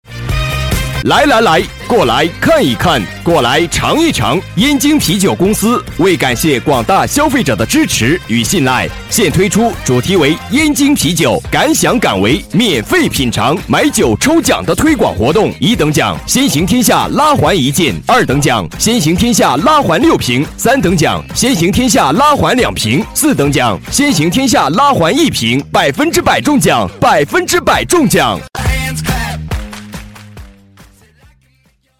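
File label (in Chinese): 男79 【促销】啤酒; 燕京; 实惠大气; 燕京啤酒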